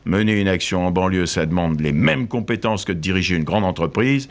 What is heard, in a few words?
Laryngalisation; Para-linguistic phenomenoms; THESE/CORPUS; Yvan Levai